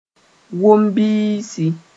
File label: "wom biisi", moore